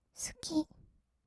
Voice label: "すき…", kawaii voice; 1200 kawaii voice!!; 色々なワンショット

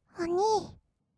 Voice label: おにぃ, kawaii voice; ASMR; 二人称

